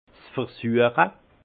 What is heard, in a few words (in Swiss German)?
"versueche", alsace; vin; dégustation